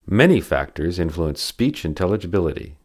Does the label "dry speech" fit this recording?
Yes